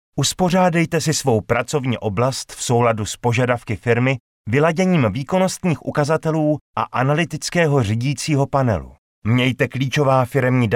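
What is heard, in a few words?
Czech e-learning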